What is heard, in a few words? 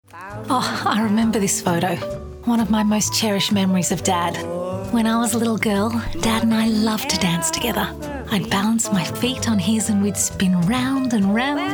voice over reel